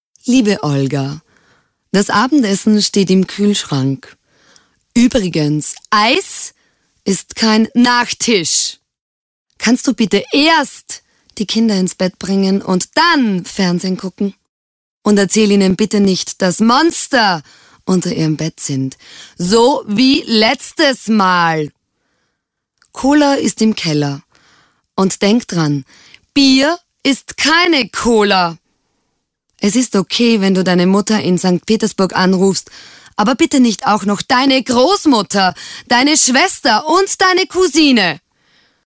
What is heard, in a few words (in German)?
werbung